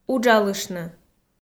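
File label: "уджалыштны", Komi, female; kpv audio dict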